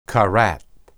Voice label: "karath", Hebrew

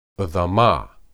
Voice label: "edamah", Hebrew

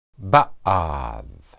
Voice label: "baad", Hebrew

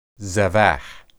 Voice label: "zebah", Hebrew

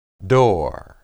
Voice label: "dor", Hebrew

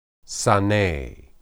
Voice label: "sane", Hebrew

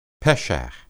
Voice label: "pesha", Hebrew

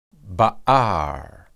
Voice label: "baar", Hebrew

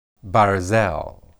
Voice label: "barzel", Hebrew

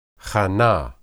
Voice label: "hanah", Hebrew

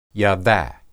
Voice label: "yada", Hebrew

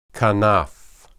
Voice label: "kanaph", Hebrew

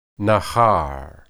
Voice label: nahar, hebrew

